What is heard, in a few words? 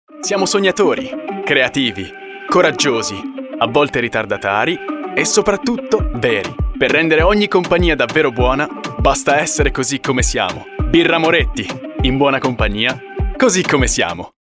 Italian Reel